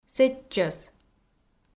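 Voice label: "сэтчӧс", Komi, female; kpv audio dict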